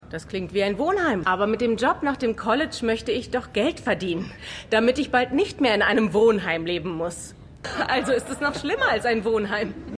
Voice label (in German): Studentin; WeCrashed